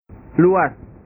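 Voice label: "luas", Indonesian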